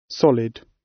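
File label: "solid", British; Space, the planets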